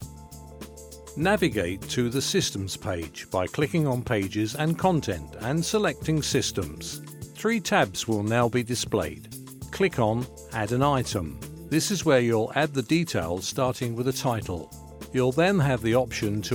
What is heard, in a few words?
e-Learning